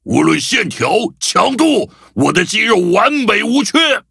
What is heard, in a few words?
levelup, zh-hant; cookie run